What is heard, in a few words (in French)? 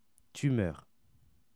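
"tumeur", French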